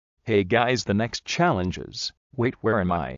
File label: announcer